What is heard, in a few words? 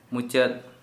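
"over", Santali, male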